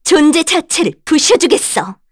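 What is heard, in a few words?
Vox Skill, Korean; Veronica; kings raid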